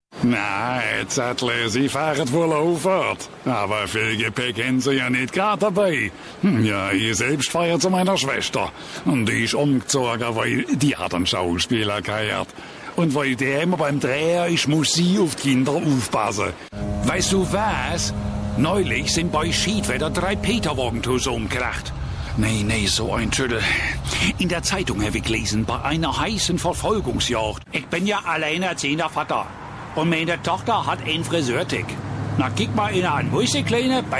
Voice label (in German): Dialekte Collage